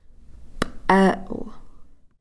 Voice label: "p'ee'l", Cow Creek; basket plate